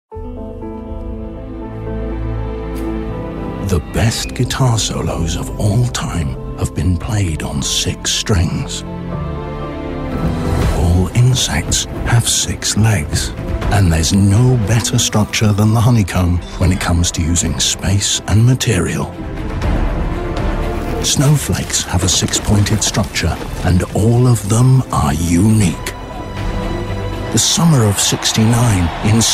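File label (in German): UK English, Werbung, Sanft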